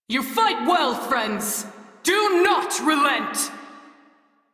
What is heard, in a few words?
Crew Enemy Defeat, Female; Dialog; Warframe; Railjack Crew; New Loka